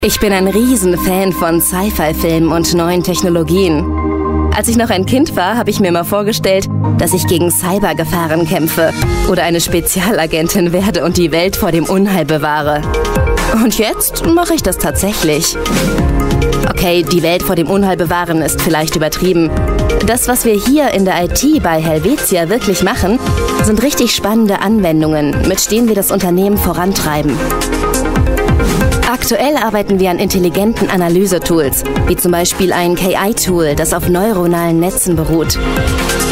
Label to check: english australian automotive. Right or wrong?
Wrong